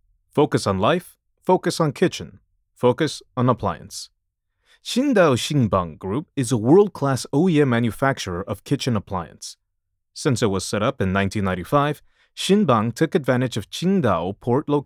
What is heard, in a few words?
ENG VO; Quindao Xingbang Demo